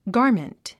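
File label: "garment", English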